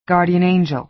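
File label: "guardian angel", American English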